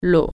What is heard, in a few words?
"water", French